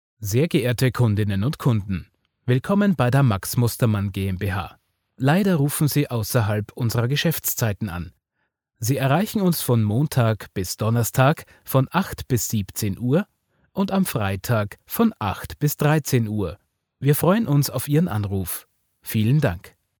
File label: IVR Firma standard